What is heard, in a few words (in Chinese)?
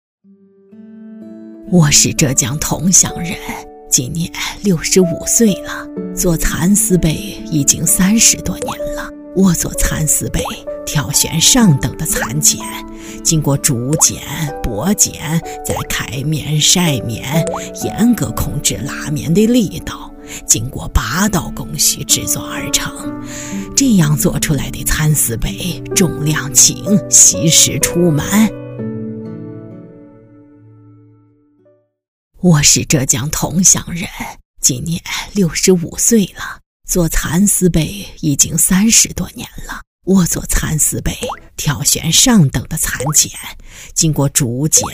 女 老年音 7-80岁老奶奶, 素人自然; 抖音产品